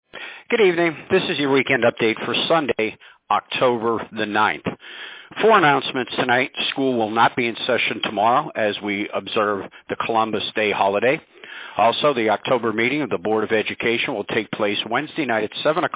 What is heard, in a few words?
Robocall